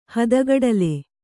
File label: "ಹದಗಡಲೆ", Kannada; hadagaḍale; noun